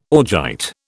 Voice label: "augite", British